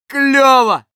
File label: Scout cheers, ru